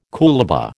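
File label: "coolabah", American English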